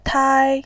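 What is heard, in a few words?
"tai", Mandarin Chinese, first tone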